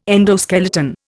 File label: "endoskeleton", Indian